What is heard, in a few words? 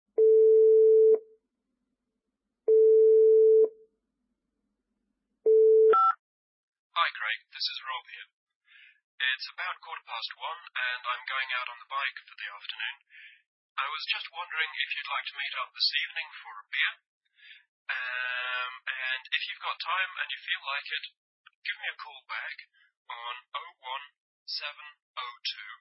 Phone Message